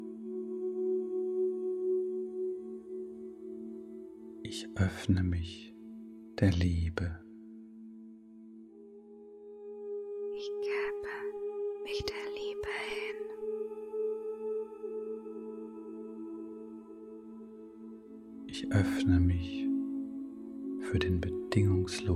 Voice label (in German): afirmationen healing woman